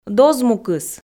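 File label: "дозмукыс", Komi, female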